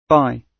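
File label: "bye", British English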